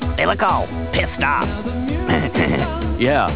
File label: PISSED